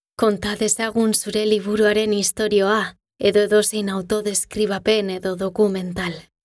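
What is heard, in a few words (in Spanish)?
NARRATIVO EUSKERA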